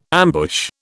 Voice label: "ambush", British

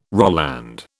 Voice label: "Roland", British

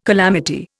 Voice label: "calamity", Indian

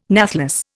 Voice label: "nathless", Indian

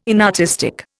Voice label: "inartistic", Indian

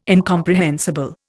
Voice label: "incomprehensible", Indian

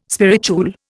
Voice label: "spirituel", Indian

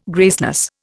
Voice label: "graceless", Indian

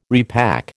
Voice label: "repack", American

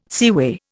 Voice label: "seaway", Indian

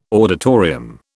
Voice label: "auditorium", British

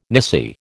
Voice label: "nisi", American

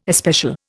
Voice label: "especial", Indian

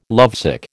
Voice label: "lovesick", American

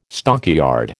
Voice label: "stockyard", American

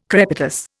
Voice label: "crepitus", Indian

